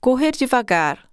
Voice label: Portuguese run slow; samsung YP-U5; Audio User Interface